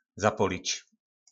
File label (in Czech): "Zapolič", po našimu; Zapálit